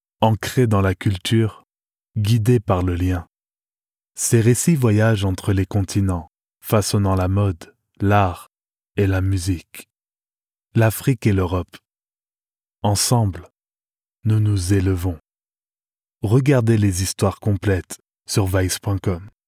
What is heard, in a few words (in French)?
voix off publicite, masculine